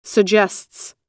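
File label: "suggests", US English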